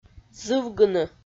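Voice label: "зывгыны", Komi, female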